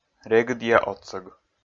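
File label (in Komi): "регыдъя отсӧг", Komi, male; audio dict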